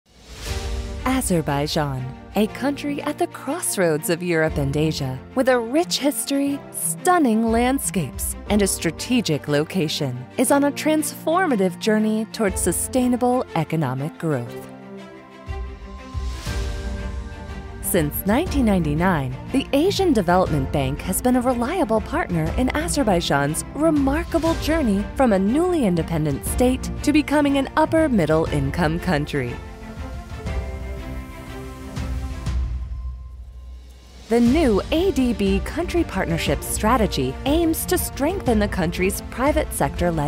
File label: Narration Sample; Asian Development Bank